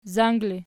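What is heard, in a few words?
"zang" ergative, Archi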